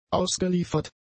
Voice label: "ausgeliefert", German; adjectives

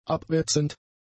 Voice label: "abwetzend", german; adjectives

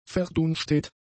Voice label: "verdunstet", German; adjectives

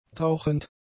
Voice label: "tauchend", German; adjectives

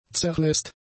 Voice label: "zerlässt", German; adjectives

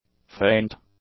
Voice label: "faint", english; adjectives

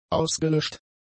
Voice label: "ausgelöscht", German; adjectives

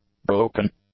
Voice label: "broken", English; adjectives

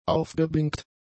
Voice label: "aufgeblinkt", German; adjectives

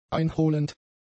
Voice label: "einholend", German; adjectives

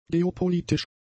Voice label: "geopolitisch", German; adjectives

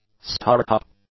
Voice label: "start up", english; nouns

